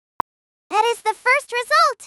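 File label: speaker female fast high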